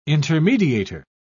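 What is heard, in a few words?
"intermediator", British English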